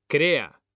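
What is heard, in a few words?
"CREA", Spanish, male; mekanta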